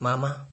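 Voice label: "mama", Nigerian Pidgin, male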